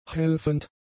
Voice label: "helfend", German; adjectives